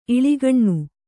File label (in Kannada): "ಇಳಿಗಣ್ಣು", Kannada